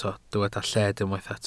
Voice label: "lled", welsh